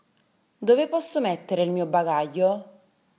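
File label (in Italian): "Bagaglio", Italian; italybytrain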